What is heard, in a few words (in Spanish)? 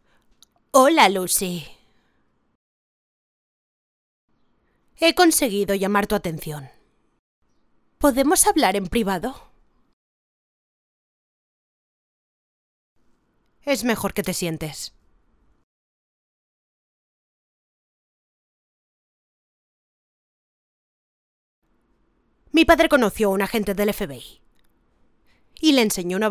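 doblaje España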